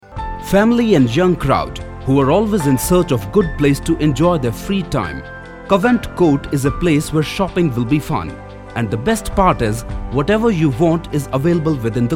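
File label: realestate English voiceover, male voice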